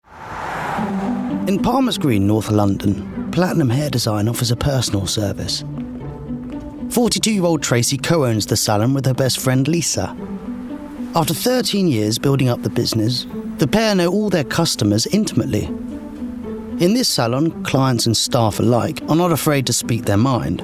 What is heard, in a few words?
DOCUMENTARY; Secrets of the Hairdressers